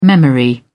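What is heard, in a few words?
"memory", British English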